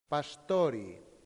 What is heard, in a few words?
"pastori", latin; santillana